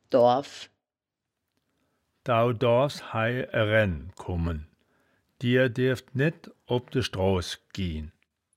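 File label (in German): "doarf", wittlicher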